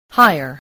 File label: "hire", English, spelling